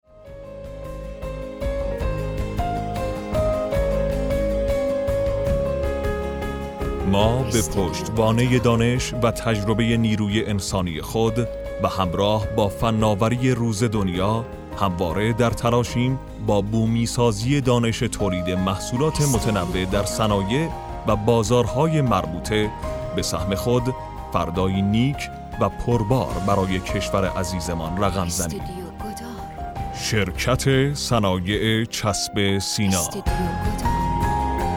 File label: commercial